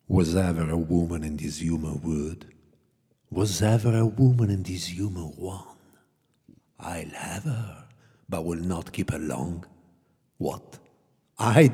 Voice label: english